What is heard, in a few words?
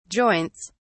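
"joints", British English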